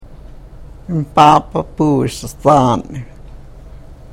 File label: karuk